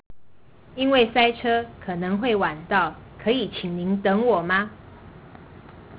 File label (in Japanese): Chugokugo